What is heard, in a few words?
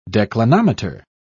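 "declinometer", British English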